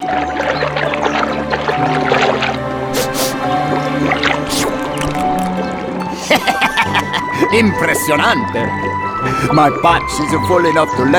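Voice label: outro victory British; arthur and the revenge of maltazard; Wii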